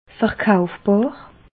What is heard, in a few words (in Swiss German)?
"verkaufbar", alsace